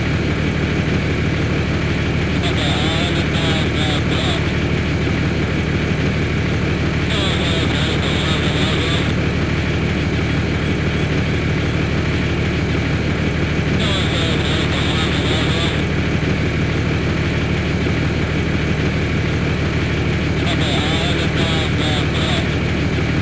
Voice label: apc radio call